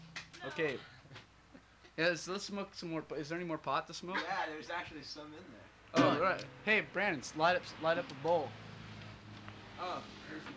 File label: Bong Banter; Background Actors